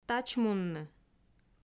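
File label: "тачмунны", Komi, female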